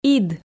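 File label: "ид", Komi, female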